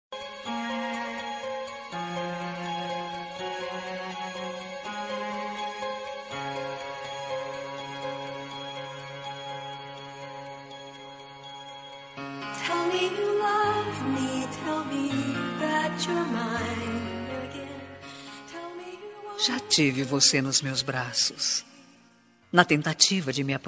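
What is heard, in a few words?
romantic ex, female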